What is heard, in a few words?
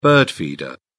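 "bird feeder", British English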